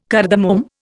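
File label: "cardamom", Indian English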